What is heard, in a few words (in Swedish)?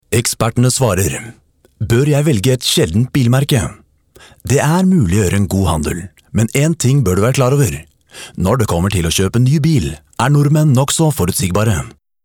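Male Swedish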